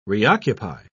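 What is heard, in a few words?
"reoccupy", English, British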